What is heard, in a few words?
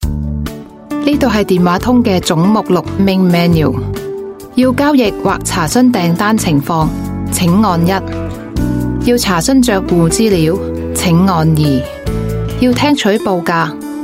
Cantonese IVR, Cantonese Female